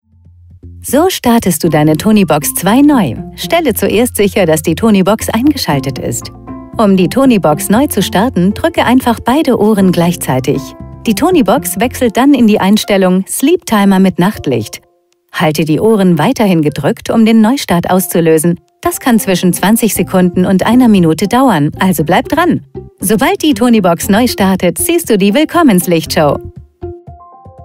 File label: eLearning; eLearning voice over deutsch